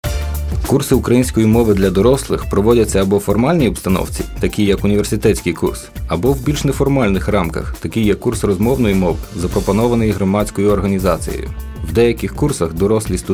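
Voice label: ukrainian male narration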